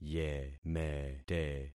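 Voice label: "yemete", concatenated syllables; Affixing experiment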